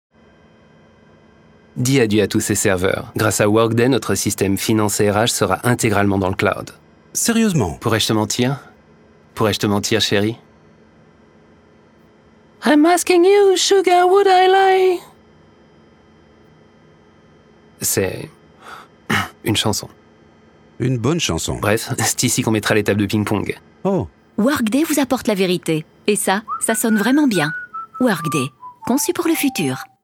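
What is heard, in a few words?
French, Neutral; Fremdtake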